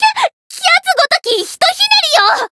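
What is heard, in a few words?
encounter fear, Japanese; tiramisu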